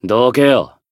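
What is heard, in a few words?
Voice encounter, Japanese; yakumo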